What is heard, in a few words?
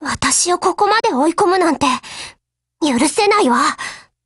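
Japanese, Voice; BREAK; GF Alarm; Saiga12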